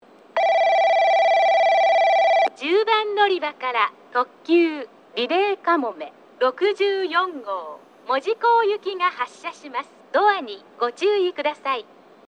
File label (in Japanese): 10番のりば発車放送; リレー特急; リレーかもめ64号 門司港行き